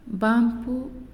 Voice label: "Tail", Naasioi